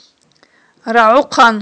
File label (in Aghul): "рагIухъан", aghul